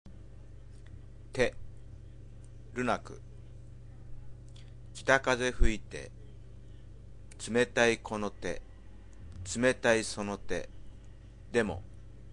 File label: poem read